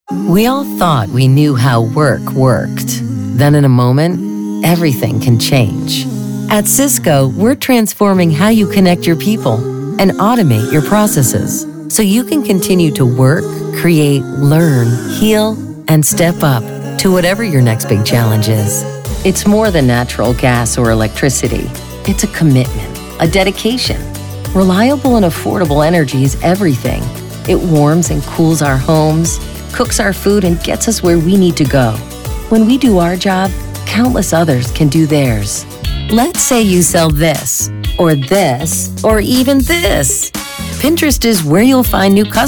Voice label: Corporate Narration